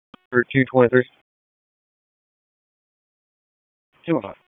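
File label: Police Northeast District Dispatch, Raleigh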